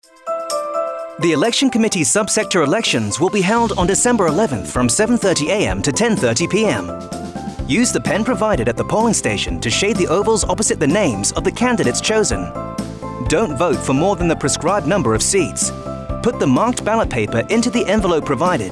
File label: radio voting